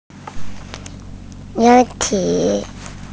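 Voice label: "ya2 chi3", Mandarin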